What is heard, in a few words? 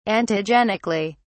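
"antigenically", British English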